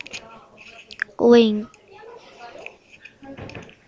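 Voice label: "ring", spoken word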